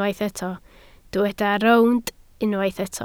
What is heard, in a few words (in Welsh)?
"rownd", Welsh